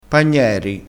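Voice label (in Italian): "pagneri", dialettale crespinese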